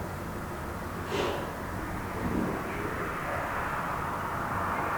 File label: bang and deep voice; shelton hotel